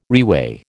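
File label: "reweigh", American English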